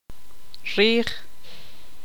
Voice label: "espalda", tzutujil

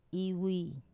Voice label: "vui", to write, wamesa

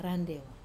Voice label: "randewa", wamesa; place for anchoring canoes

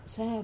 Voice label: "sa", negative particle, wamesa

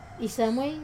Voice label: "samuai", find, wamesa

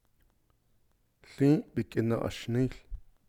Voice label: "I am unsaddling the horse", navajo; verb mode

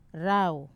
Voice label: "rau", edible leaf, wamesa

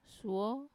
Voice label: "suo", peninsula, wamesa

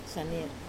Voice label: "saner", Wamesa; ironwood

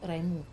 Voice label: "raimu", bay area, wamesa

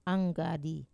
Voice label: "anggadi", Wamesa; coconut

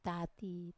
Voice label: "tati", grandfather, wamesa

